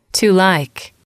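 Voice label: "to like", English, female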